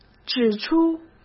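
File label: "指出", Mandarin Chinese; hsk; chinese-flashcards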